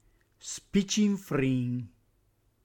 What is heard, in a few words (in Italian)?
"spicinfrîn", Reggiano; reggiane; dialetto